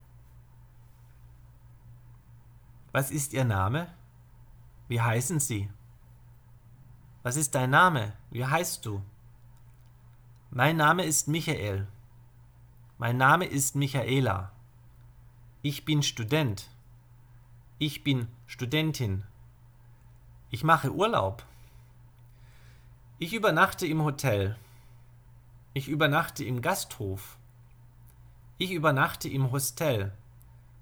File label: German Lesson Self Introduction